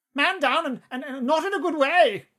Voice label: battle chatter